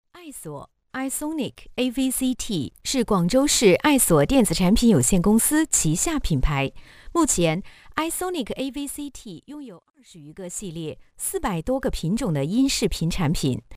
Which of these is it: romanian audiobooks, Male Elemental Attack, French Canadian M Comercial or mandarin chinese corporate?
mandarin chinese corporate